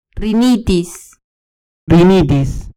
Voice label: "rinitis", Spanish; Vocabulario

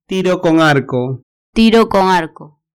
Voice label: "tiro con arco", Spanish; Vocabulario

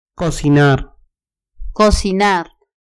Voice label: "cocinar", Spanish; Vocabulario

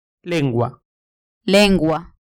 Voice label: "lengua", Spanish; Vocabulario

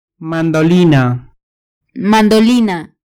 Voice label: "mandolina", Spanish; Vocabulario

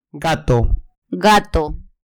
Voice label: "gato", Spanish; Vocabulario

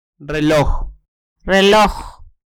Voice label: "reloj", Spanish; Vocabulario

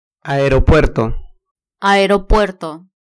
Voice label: "aeropuerto", Vocabulario

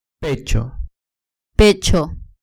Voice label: "pecho", Spanish; Vocabulario

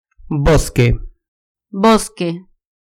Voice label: "bosque", Vocabulario

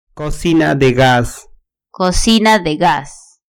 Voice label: "cocina de gas", Vocabulario

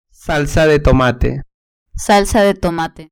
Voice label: "salsa de tomate", Spanish; Vocabulario

